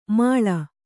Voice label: "ಮೇಳಿ", Kannada

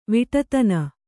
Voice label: "ವಿಟತನ", Kannada

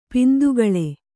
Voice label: "ಪಿಂದುಗಳೆ", Kannada

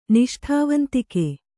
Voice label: "ನಿಷ್ಠಾವಂತಿಕೆ", kannada

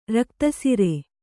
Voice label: "ರಕ್ತಸಿರೆ", Kannada

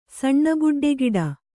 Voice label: "ಸಣ್ಣಬುಡ್ಡೆಗಿಡ", kannada

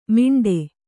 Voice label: "ಮಿಂಟೆ", Kannada

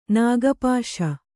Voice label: "ನಾಗಪಾಶ", Kannada

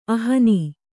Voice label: "ಅಹ್ನ", Kannada

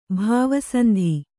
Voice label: "ಭಾವಸಂಧಿ", Kannada

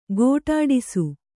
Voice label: "ಖಡ್ಡಿಸು", Kannada

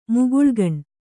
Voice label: "ಮುಗುಳುಗಣ್", Kannada

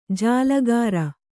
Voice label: "ಜಲಗಾರ", Kannada

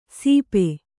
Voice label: "ಸೀಪೆ", Kannada

